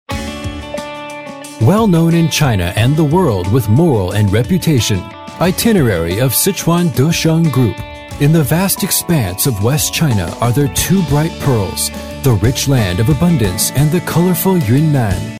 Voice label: boy; english